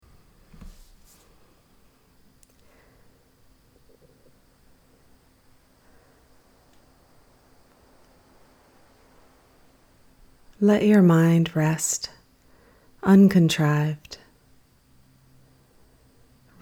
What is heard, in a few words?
Meditation; Ocean of Awareness